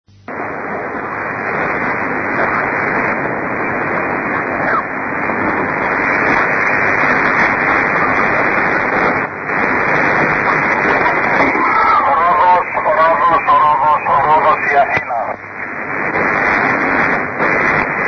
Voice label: rhodos aer 5637 khz

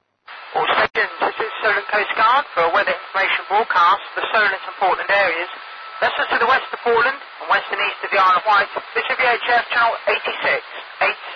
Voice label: coast guard vhf; solent; europe

